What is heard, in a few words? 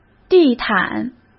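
"地毯", Mandarin Chinese; chinese flashcards; hsk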